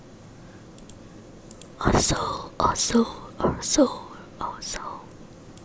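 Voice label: "also", spoken word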